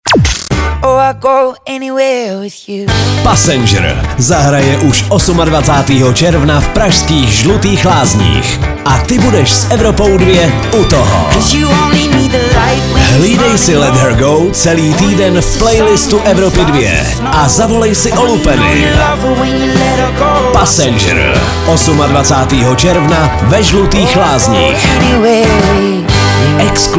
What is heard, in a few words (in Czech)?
promo soutez; evropa2